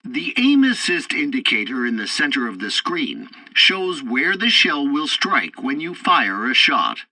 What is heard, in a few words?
tutorial tank arcade first target crosshairs, english; War Thunder